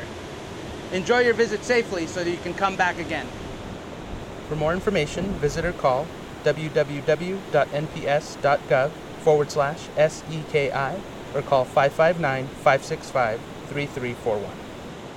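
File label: Water Safety English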